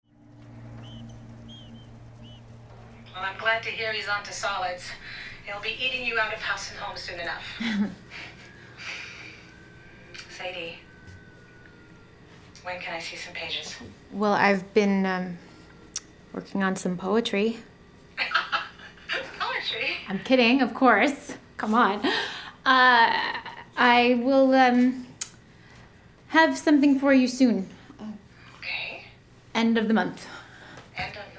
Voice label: voice demo; mother of invention short film